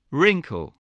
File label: "wrinkle", spelling